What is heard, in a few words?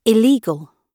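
"illegal", British English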